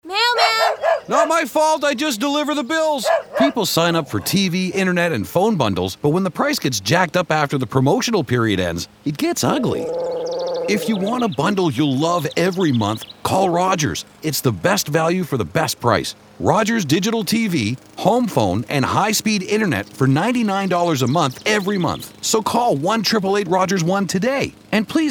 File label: Ad En